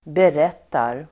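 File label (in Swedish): "berättar", Swedish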